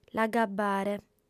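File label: "l'agabbare", female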